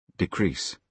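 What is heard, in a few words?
"decrease", British English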